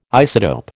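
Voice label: "isotope", American English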